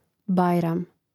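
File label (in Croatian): "bajram", Croatian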